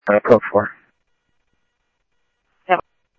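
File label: police department, scanner audio trunk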